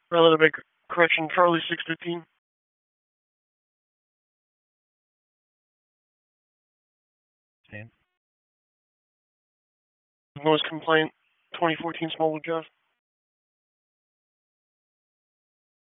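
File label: Police Southwest District Dispatch, p25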